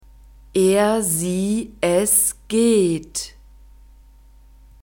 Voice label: "er sie es geht", German